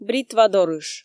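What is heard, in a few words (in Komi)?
"бритва дорыш", Komi, female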